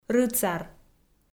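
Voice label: "рыцар", Komi, female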